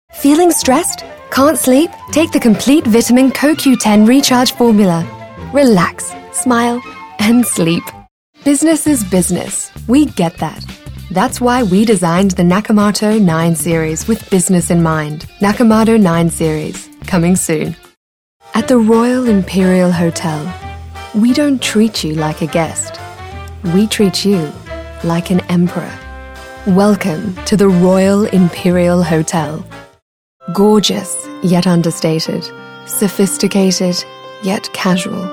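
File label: British TV commercial; UK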